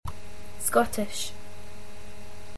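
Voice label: "Scottish" English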